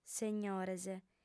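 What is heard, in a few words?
"segnores", Sardinian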